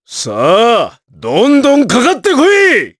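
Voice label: voice, Japanese; Gau; Victory; kingsraid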